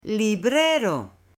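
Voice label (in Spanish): "LIBRERO", Spanish, female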